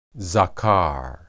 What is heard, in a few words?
"zakar", Hebrew